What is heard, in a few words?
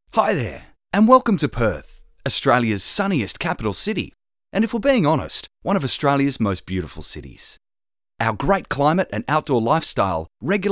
corporate, voices